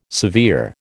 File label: "severe", American